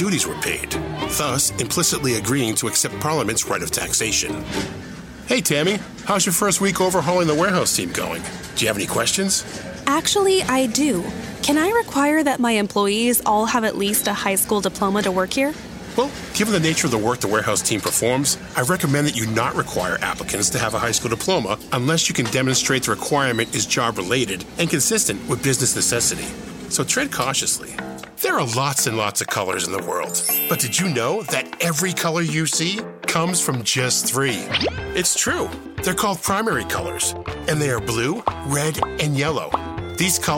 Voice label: english american e-learning

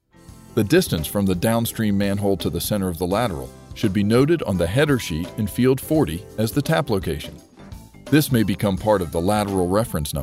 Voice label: english american e-learning

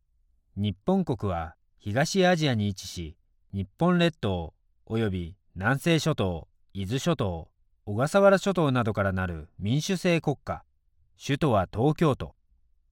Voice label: japanese narration; Je suis un acteur/artiste vocal japonais basé à New York.

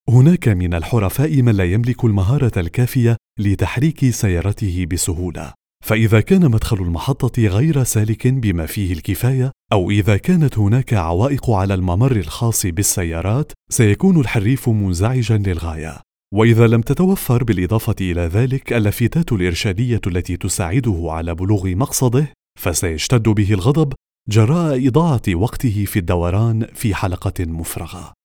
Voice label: arabic automotive

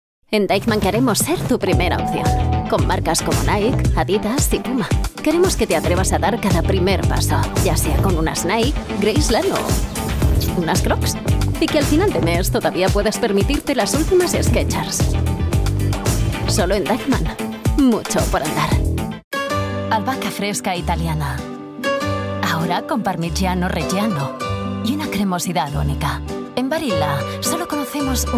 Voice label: spanish commercial

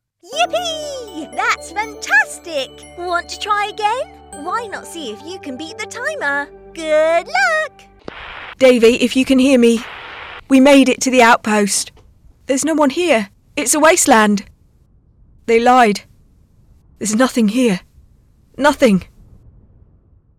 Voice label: english british video games

